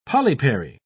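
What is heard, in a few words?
"polypary", British English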